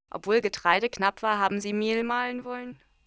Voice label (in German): Resynthese, intonation